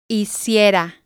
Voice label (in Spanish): "hiciera", spanish